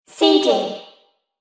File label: Robot Voice Cd; Robot Sound Effects; Sci-Fi Sound Effect; Andrew Fleming